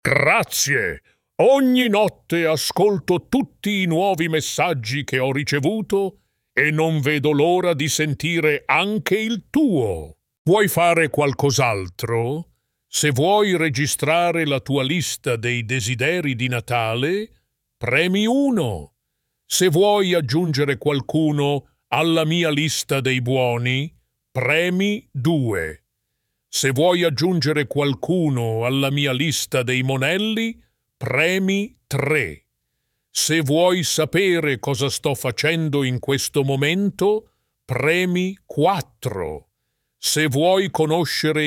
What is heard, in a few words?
voicemail, italian